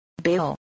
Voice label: "bale", english

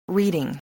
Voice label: "reading", english

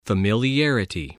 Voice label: "familiarity", voice

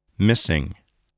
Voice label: "missing", voice, spelling

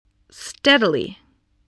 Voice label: "steadily", voice

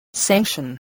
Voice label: "sanction", English spelling

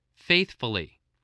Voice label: "faithfully", voice

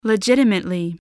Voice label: "legitimately", spelling

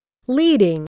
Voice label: "leading", English

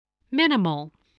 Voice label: "minimal", voice, spelling

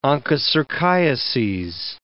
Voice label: "onchocerciases", English

